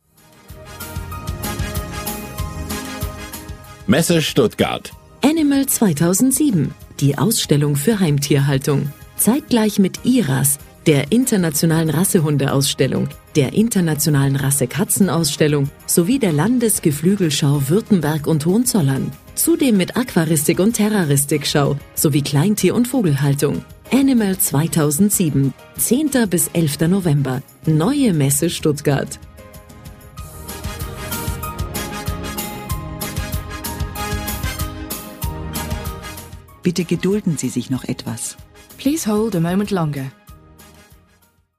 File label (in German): Werbespot; Landesmesse Stuttgart